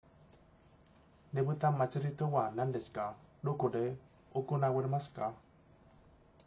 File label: japanese prompt